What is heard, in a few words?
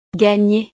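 "gagner", French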